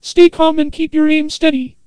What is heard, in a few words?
Antenna Voice